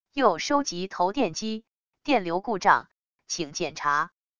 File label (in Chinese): 语音, 语音报警器; EJM 560